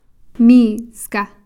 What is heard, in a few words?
"mii'ska'", one